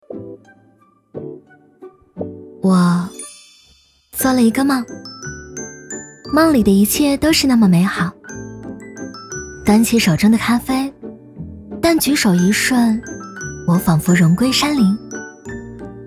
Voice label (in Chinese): 清新松弛女声